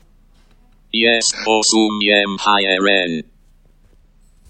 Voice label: Armenian

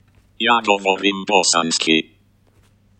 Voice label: Bosnian

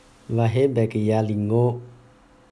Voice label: I speak Karenni

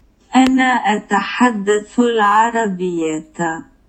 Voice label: I speak Arabic

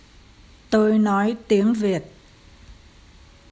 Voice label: I speak Vietnamese

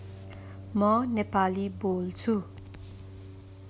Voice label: Nepali

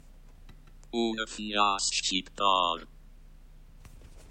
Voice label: Albanian

